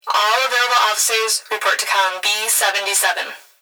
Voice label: Emergency Human Female Voice Plant Security Dispatch All Available Officers Report To